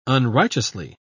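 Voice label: "unrighteously", English, British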